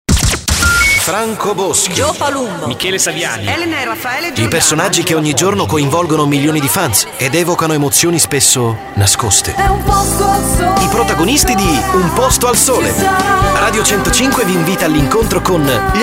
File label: promo; posto al sole